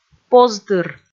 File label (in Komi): "позтыр", Komi